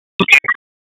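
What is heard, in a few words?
Sheriff's Office Dispatch; p25; wake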